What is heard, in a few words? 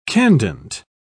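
"candent", British English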